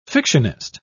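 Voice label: "fictionist", English, British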